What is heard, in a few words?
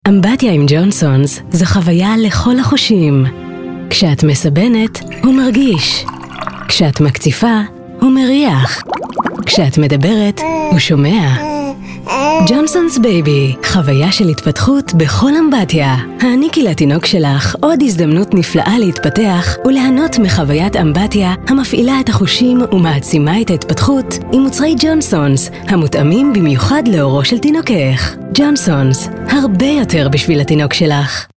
hebrew narration